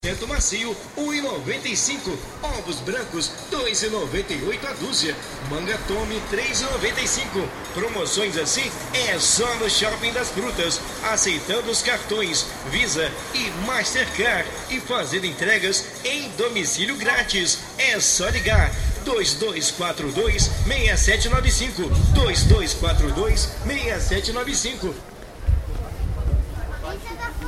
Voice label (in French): Brésil Rio pub magasin primeur